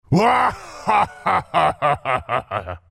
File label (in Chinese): laugh, zh; Dota 2